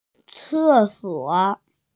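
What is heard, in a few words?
"toilet", Mandarin Chinese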